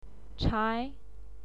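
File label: "chai", Mandarin Chinese, second tone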